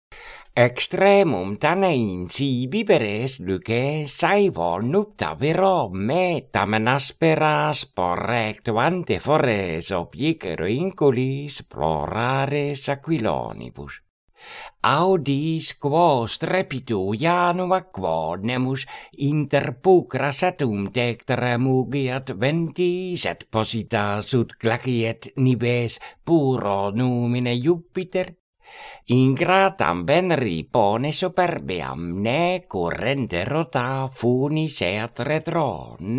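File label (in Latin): Horatii carmina quae voce canora pronuntiat; Extremum Tanain si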